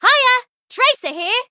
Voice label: battlecry; tf; tracer